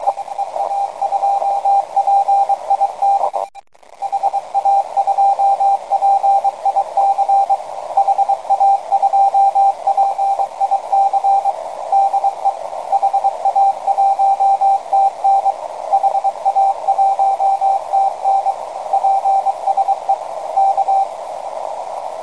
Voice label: 10W 3579kHz filt; Szhely-Bp